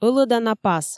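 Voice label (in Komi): ӧлӧдана пас, Komi, female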